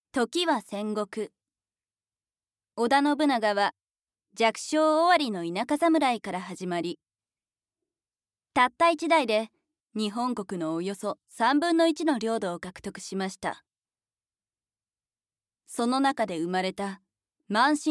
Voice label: ナレーション

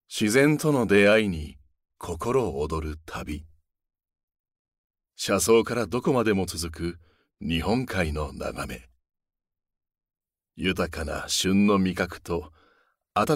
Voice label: ナレーション

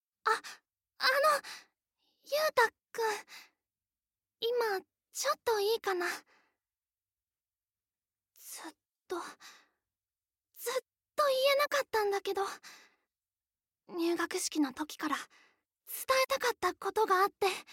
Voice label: セリフ 女子高生

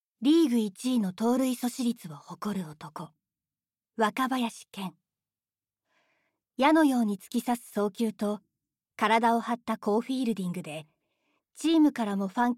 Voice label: ナレーション

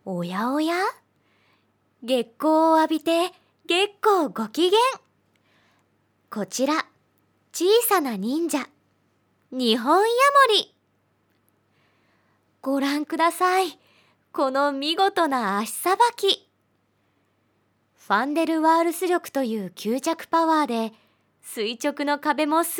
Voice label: ナレーション 動物番組

